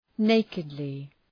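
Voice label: "nakedly", English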